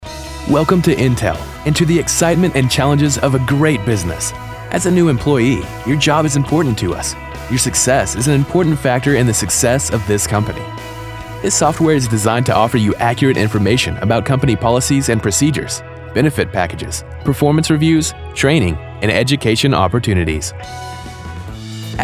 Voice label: Corporate Narration